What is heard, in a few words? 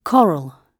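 "coral", British English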